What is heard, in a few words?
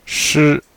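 "shi1", Mandarin Chinese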